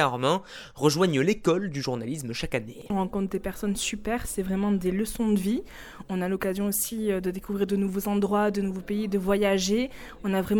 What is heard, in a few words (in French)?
Reportage radio